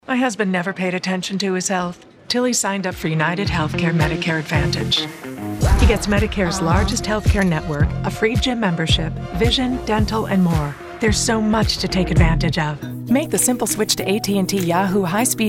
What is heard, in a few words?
Commercial